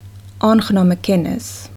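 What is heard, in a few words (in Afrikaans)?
"aangename kennis", Afrikaans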